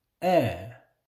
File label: "air"